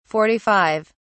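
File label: "forty five", English, British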